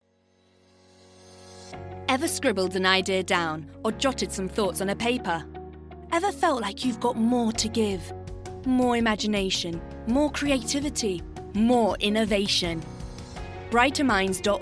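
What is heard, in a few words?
ENUK Commercial, British English; GoLocalise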